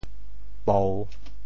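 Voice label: "pou3", Teochew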